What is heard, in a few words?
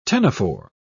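"ctenophore", British English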